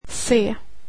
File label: "c", swedish alphabet